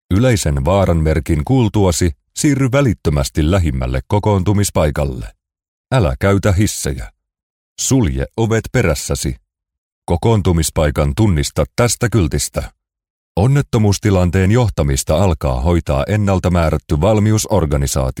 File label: M Finnish E-Learning